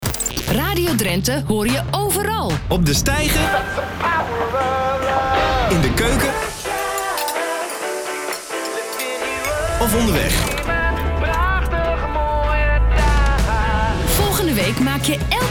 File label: PROMO SPEAKER TEASE; ZBA